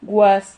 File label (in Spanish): "guas", Silabas